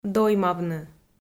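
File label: "доймавны", Komi, female; kpv audio dict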